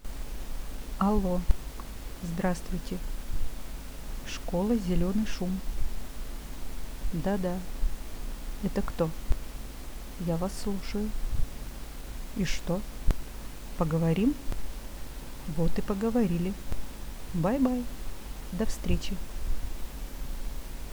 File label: dialog